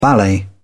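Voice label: "ballet", British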